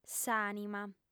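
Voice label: "s'ànima", female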